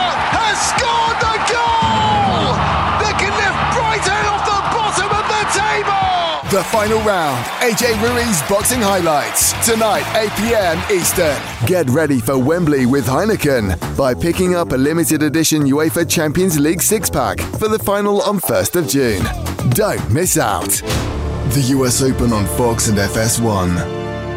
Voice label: sport, voiceover